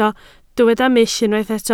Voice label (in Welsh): "mull" Welsh